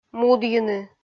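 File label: "мудйыны" Komi, female; kpv audio dict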